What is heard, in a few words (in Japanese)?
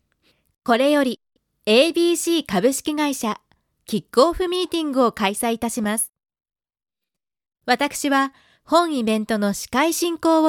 【式典】, ナレーション